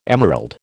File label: "emerald", American